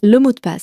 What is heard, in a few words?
"mot de passe", French